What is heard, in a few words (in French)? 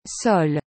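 "sol", French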